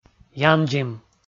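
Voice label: "яндзим", Komi, male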